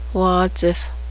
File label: deer, cheyenne language